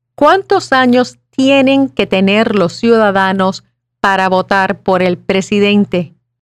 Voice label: Spanish